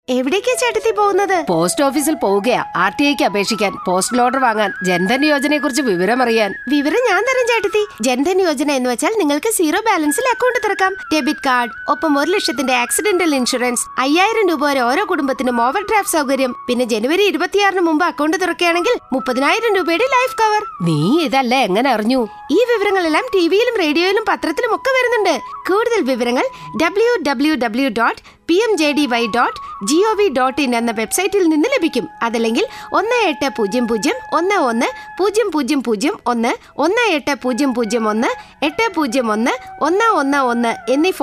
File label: Malayalam